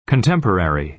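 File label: "contemporary", American English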